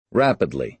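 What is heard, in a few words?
"rapidly", American English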